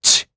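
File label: Vox Deny, Japanese; Lusikiel; kings raid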